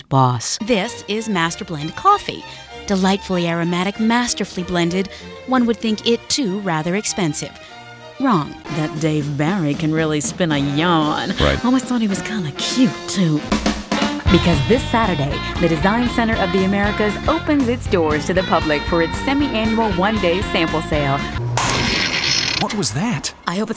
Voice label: COMMERCIAL